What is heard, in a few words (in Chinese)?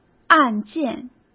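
"案件", Mandarin Chinese; chinese flashcards; hsk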